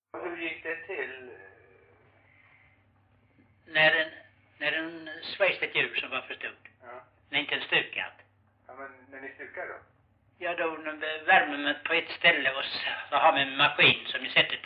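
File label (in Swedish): Rolfstorp Halland, dialekter; Folkminnen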